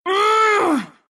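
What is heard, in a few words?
anger, Russian; Dota 2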